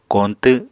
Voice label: "koñtw", Apatani